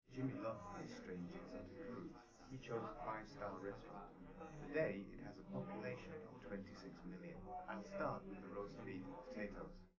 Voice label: male liverec; SiSEC11; underdetermined